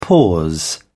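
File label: "pause", British English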